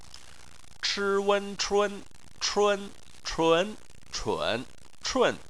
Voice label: "chun", Chinese